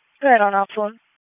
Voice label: FIRE OPS, p25; wake p25

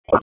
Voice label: Police North District Tac 1, p25; Raleigh; wake p25

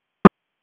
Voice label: Police Tac 1, p25; Wake Forest

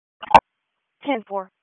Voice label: Police Southwest District Disp, p25; Raleigh; wake

